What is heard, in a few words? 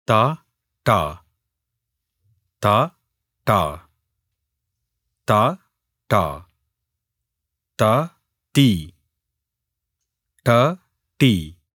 Malayalam Recordings Basic Pronunciation